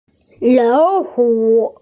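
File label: "lao hu" Chinese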